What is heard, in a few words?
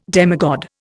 "demigod", Indian